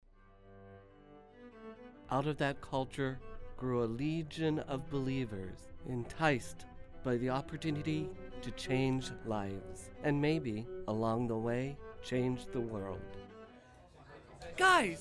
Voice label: Commercial